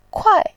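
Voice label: "kuai4", Mandarin Chinese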